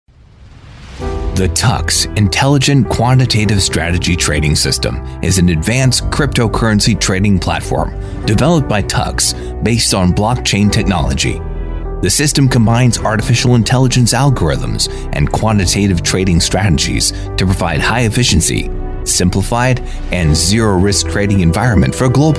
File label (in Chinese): 英式美式 专题